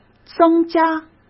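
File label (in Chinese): "增加", Mandarin Chinese; hsk; chinese flashcards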